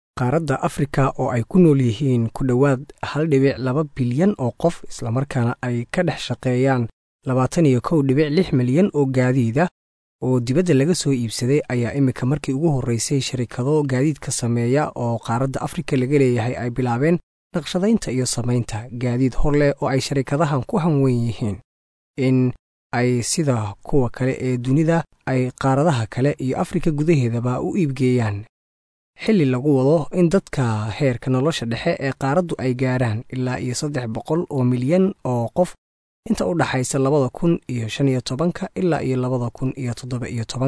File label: Somali male